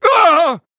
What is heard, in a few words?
Male Venthyr Death